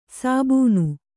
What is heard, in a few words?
"ಸಾಬೂನು", Kannada